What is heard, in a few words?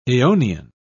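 "eonian", English, British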